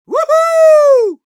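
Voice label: battlecry, Russian; Scout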